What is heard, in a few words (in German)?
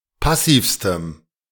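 "passivstem", German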